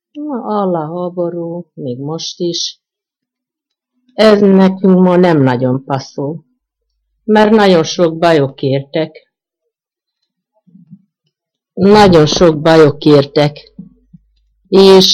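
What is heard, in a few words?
dialect collection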